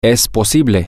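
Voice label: it is possible, spanish, male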